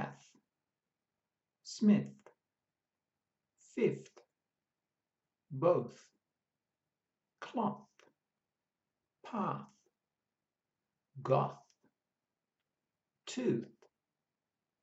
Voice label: Th sounds unvoiced, pronunciation of australian english